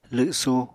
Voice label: "lwsu", Apatani